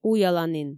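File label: "уяланін" Komi, female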